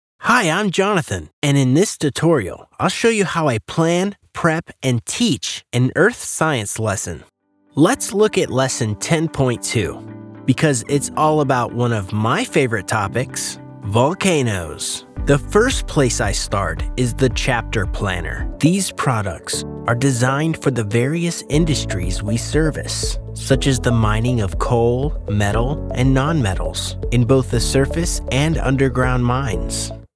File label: english american e-learning; englischer Synchronsprecher